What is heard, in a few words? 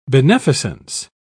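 "beneficence", English, British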